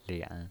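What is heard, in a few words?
"lian", Mandarin Chinese, third tone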